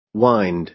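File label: "wind", American English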